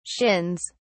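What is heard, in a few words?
"shins", British English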